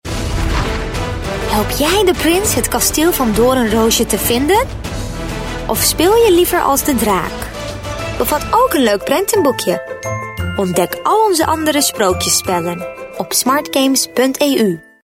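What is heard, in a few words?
Dutch female TVC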